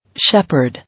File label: "Shepherd", English